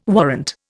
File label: "warrant", Indian